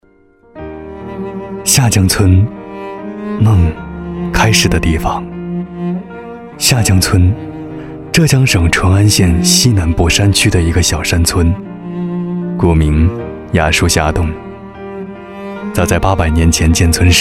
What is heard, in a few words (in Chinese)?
男 走心旁白; 梦开始的地方